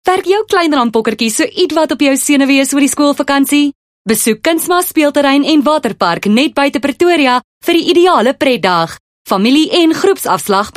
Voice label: Hard sell South African Neutral

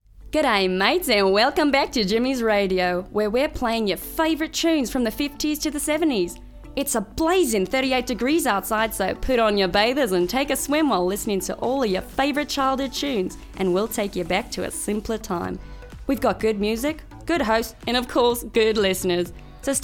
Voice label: Accent Australian

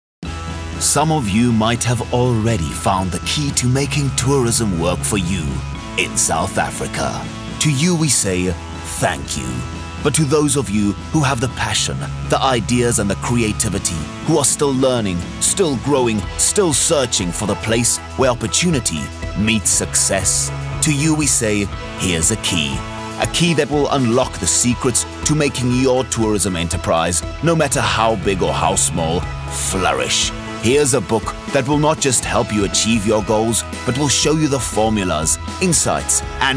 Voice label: Medium sell English

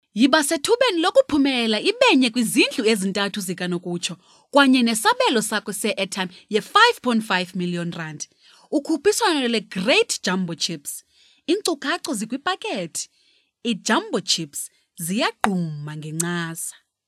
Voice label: Hard Sell IsiXhosa

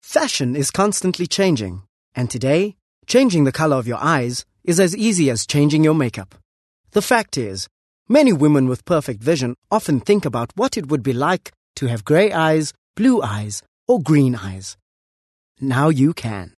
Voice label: Corporate South African Neutral

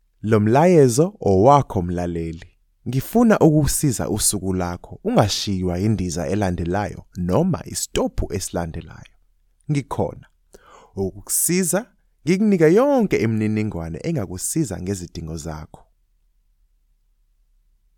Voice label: zulu announcement

